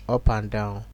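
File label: "upandown", Nigerian Pidgin, male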